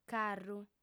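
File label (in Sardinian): "carru", female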